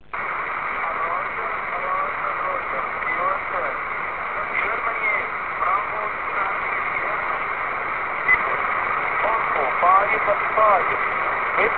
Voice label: qso